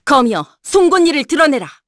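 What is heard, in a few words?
Vox Skill, Korean; Seria; kings raid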